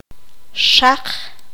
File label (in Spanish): "hoja", Q'anjob'al